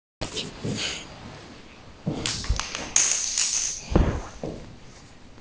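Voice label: "shi2jian1", Mandarin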